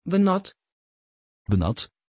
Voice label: pronunciation Beñat, Niederländisch